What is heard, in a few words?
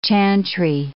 "chantry", English